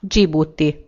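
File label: "djibouti", Hungarian; gcompris; geography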